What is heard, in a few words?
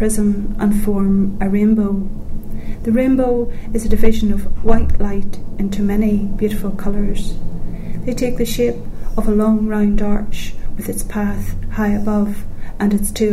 passage, dialects ni